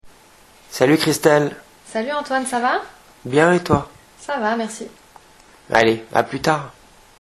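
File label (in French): "salut", French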